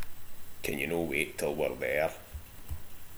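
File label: "til", slang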